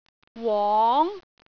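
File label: "wong2", Cantonese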